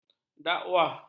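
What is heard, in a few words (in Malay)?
"dakwah", Malay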